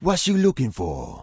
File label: Troll Male Shaman Vendor; NPC